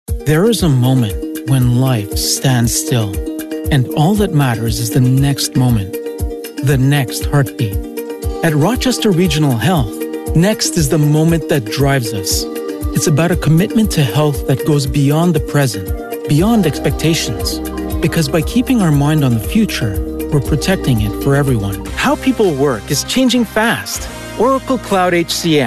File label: english canadian corporate videos